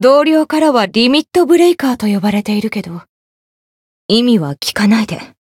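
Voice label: Voice Japanese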